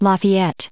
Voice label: "lafayette", us

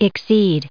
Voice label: "exceed", us

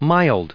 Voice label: "mild", us

